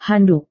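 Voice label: "handuk", Indonesian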